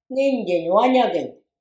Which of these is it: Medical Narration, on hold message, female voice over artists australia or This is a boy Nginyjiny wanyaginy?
This is a boy Nginyjiny wanyaginy